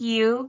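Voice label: "jiu2", Cantonese; microsoft Hiu Maan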